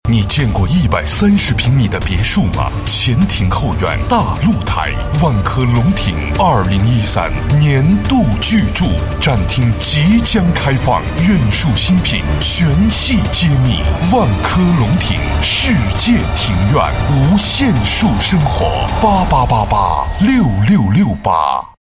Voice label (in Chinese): 广告 地产 别墅 大气; 万科